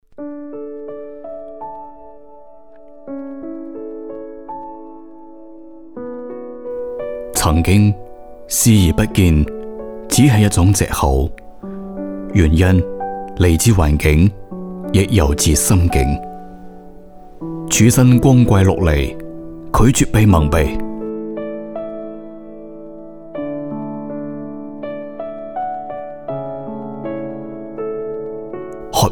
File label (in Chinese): 经典粤语讲述配音